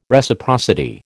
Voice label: "Reciprocity", American